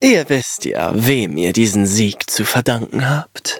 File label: victory, de; Cookie Run